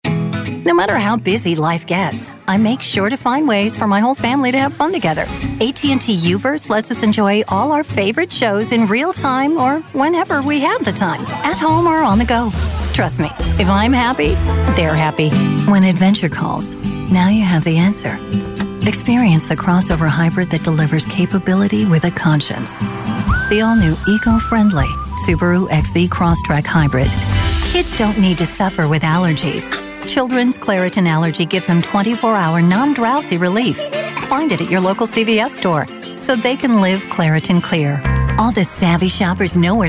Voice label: Voiceovers